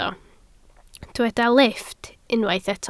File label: "lifft", Welsh